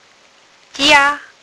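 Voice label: "jia1", Mandarin Chinese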